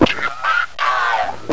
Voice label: mate down, radio, zombie male

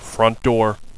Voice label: front door, radio bot; cstrike

